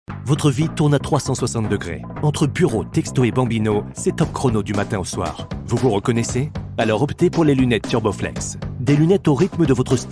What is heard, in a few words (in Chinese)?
活力激情广告; Turboflex